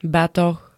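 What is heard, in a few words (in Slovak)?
"batoh", Slovak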